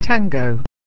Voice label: "tango", English

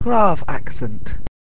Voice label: "g accent", English

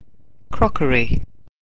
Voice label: "crockery", English

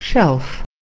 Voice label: "shelf", English

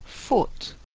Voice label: "foot", English

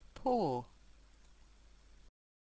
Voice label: "poor", English

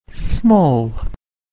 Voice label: "small", English

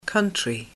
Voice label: "country", English

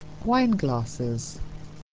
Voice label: "wineglass", English

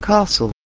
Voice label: "castle", English

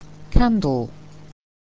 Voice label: "candle", English

